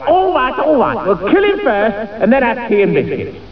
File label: male taunt; starsiege